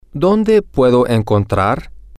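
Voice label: where Can I Find, male, spanish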